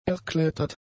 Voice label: "erklettert", German; adjectives